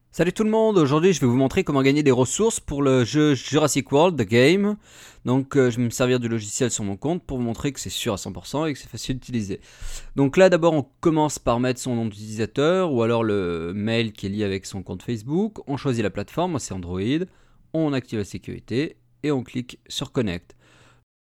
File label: FRENCH